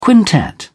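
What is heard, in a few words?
"quintet", English, uk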